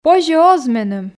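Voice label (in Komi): позьӧ оз меным, Komi, female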